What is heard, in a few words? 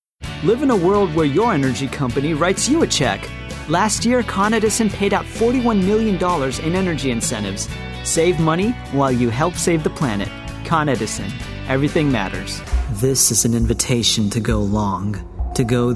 Commercial